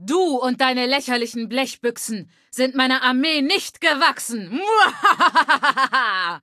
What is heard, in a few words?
Female adult default battle; Fallout 3: Audiodialoge